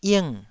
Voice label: "ing4", taiwanese syllable